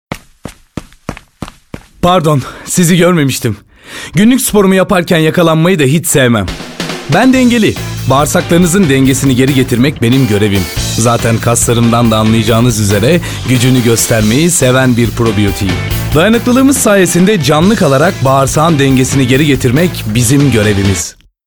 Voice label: elearning; probiyotik dengeli